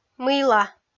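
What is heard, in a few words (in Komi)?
"мыйла", Komi, female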